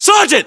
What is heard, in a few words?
rank sergeant, localized english battlechatter us